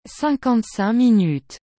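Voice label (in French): "cinquante cinq minutes", French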